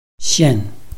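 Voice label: "xian4", Mandarin Chinese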